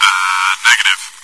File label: ahh negative; Source Engine; cstrike; bot